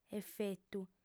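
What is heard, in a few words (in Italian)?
"efetu", lingua sarda, female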